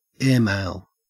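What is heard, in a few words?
"airmail" Australian English; pronunciations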